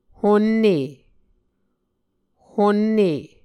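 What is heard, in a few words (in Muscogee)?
"honne", Muscogee word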